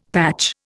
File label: "patch", Indian; Dictionary